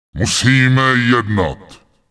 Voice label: Night Elf Male Standard NPC Greeting; wowpreklad; czwow